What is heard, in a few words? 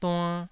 "duann2", Taiwanese